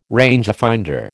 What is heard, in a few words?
"rangefinder", American